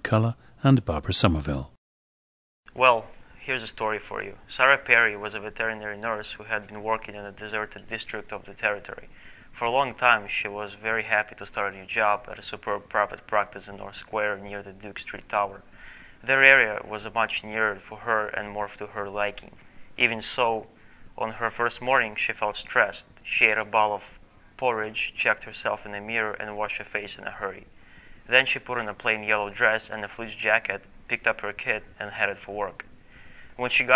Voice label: belarus, dialects